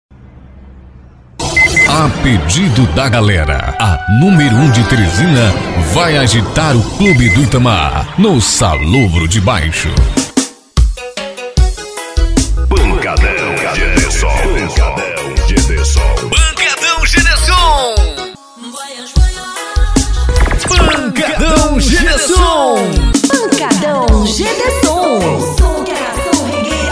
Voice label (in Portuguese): comercial spot vinheta propaganda; teresina